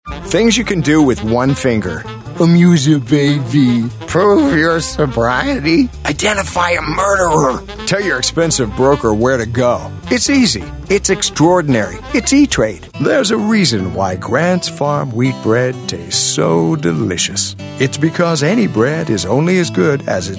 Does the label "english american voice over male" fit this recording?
Yes